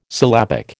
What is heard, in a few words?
"syllabic", American